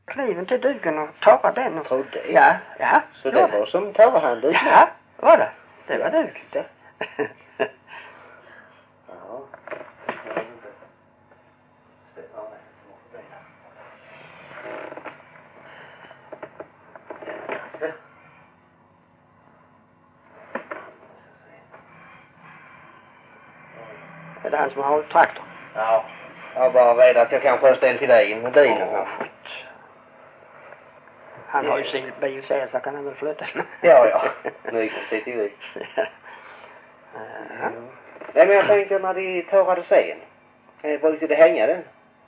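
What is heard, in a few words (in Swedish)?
Hörja Skåne, dialekter; Folkminnen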